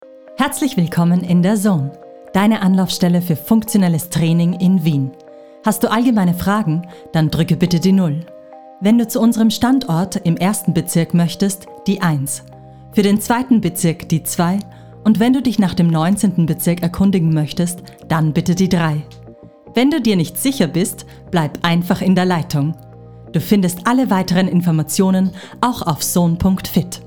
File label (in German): Telefonansage Deutsch